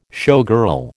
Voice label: "showgirl", American

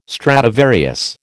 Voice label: "Stradivarius", American

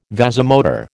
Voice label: "vasomotor", American

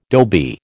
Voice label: "dhobi", American

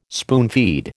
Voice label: "spoonfeed", American

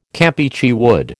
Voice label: "Campeachy wood", American

